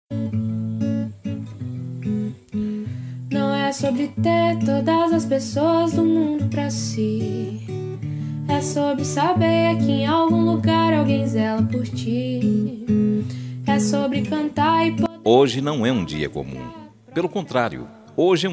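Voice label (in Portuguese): ANIV NEUTRO SING male, Telemensagem Aniversário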